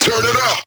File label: Vocal Phrase Male "Turn It Up"; bass house